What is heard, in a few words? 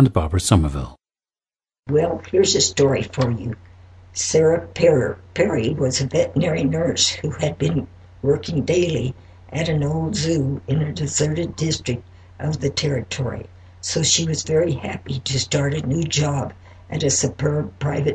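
colorado, International Dialects of English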